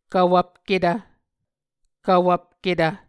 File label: "kvwvpketv", Muscogee